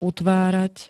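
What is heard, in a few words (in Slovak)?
"utvárať", Slovak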